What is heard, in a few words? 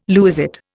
"lewisite", Indian